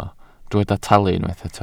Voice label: "talu", Welsh